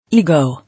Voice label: "Ego", English